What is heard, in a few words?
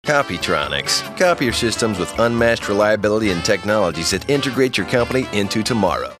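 anti announcer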